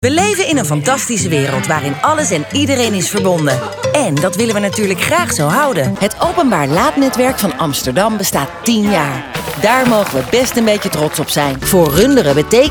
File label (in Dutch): energiek